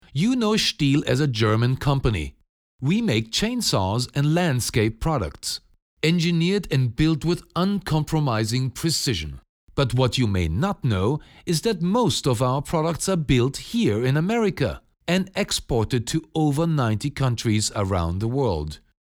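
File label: German US Accent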